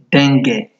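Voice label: "denge", Nigerian Pidgin, male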